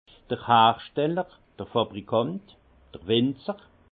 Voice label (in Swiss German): "hersteller", alsace; vin; producteur